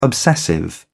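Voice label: "obsessive", British English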